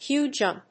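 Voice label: "queue-jump", English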